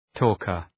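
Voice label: "talker", English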